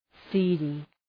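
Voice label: "seedy", English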